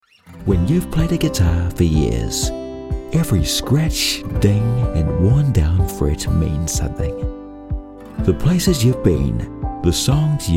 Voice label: Commercial; based in Auckland, New Zealand